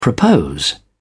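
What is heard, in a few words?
"propose", British English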